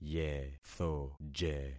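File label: "yethoje", concatenated syllables; Affixing experiment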